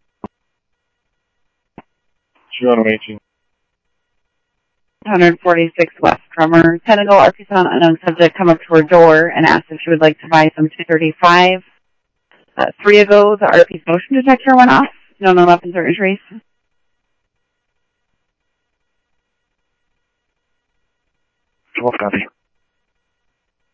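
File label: Sheriff East County, scanner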